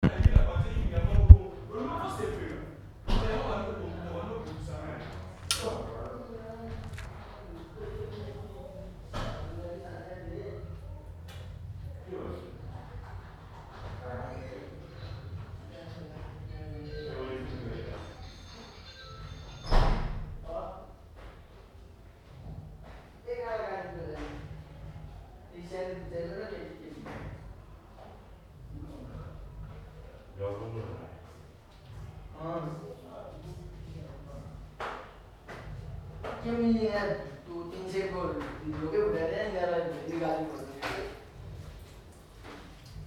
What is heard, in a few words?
african loud voice in hostel, Kathmandu; Kathmandu Ambient sounds